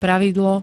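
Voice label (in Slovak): "pravidlo", Slovak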